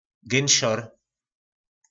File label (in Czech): "Gynšor", nářečí Po našimu